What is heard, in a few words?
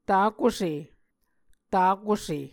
"takuse", Muscogee